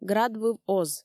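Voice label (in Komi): "градвыв оз", Komi, female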